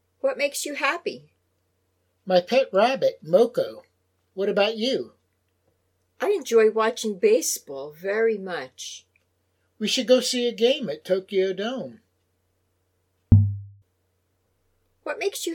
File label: Natural Dialog; Lesson 1